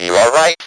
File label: speak and spell, "you are right"